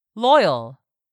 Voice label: "loyal", English; 3000 words

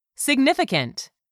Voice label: "SIGNIFICANT", english; 3000 words

